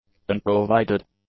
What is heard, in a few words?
"unprovided", english; adjectives